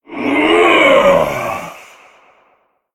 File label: Male Voidwalker Attack